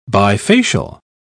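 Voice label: "bifacial", English, British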